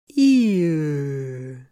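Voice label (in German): gleitübung i y russisch; Russisches Ы richtig aussprechen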